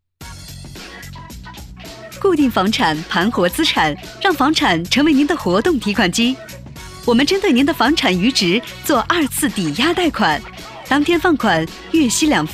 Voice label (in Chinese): 彩铃